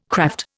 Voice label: "kraft", Indian